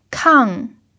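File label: "kàng", Mandarin Chinese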